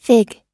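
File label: "fig", American English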